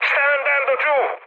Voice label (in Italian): aircraft destroyed, mood med, speech it